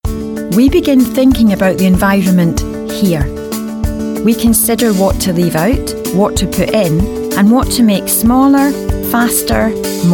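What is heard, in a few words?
Scottish, female, corporate; accent of scotland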